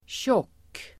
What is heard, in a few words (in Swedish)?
"tjock", Swedish